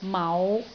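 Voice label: "mau5", Cantonese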